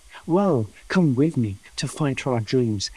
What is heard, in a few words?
Voice Acting, es